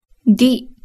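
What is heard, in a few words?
"di", Japanese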